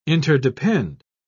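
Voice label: "interdepend", English, British English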